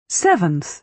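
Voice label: "seventh", British English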